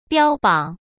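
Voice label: "标榜", Mandarin Chinese; chinese flashcards; hsk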